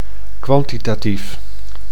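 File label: "kwantitatief", Dutch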